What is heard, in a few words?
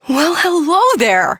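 Ambient Female Brisk, Dialog; Duviri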